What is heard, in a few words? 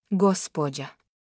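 "gospoda", Bosnian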